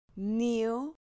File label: "nio", Spanish